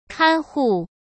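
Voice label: "看护", Mandarin Chinese; chinese-flashcards; hsk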